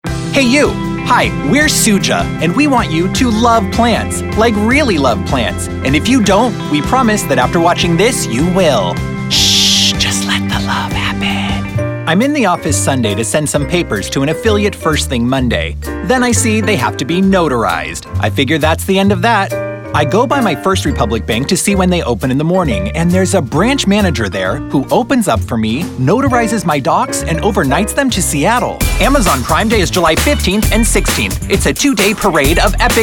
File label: Commercial